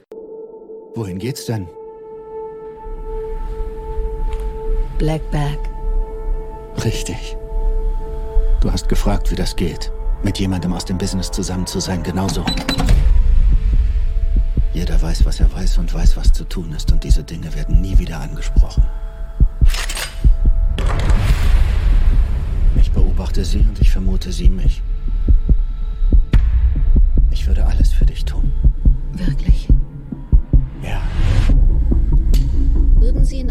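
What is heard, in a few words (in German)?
Kinotrailer, Synchron, Sprecher, Männer; Black Dag; Doppeltes Spiel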